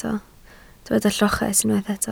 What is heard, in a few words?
"lloches", Welsh